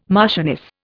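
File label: "marchioness", Indian English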